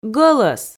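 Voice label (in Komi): "гӧлӧс", Komi, female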